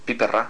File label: "piperra", Basque